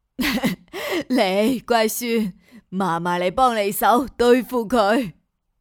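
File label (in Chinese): 品德教育奶奶; 象奶奶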